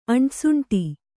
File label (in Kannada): "ಅಂಟಸುಂಟಿ", kannada